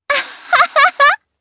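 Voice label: Vox Happy, Japanese; Shamilla; kings raid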